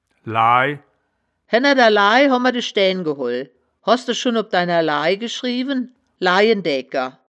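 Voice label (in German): "laai", wittlicher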